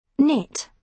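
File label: "knit", British English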